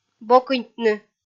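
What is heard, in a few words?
"бокыньтны", Komi-Zyrian, female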